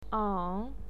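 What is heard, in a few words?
"ang", Mandarin Chinese, third tone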